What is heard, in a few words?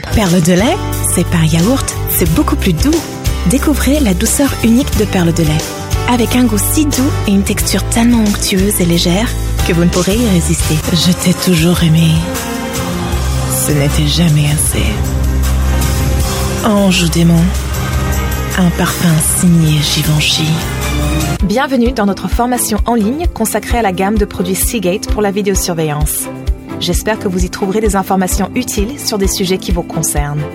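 French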